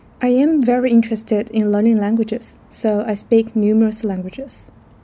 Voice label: female filler; interviewee